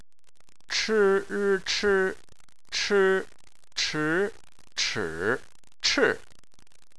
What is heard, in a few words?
"chi", Chinese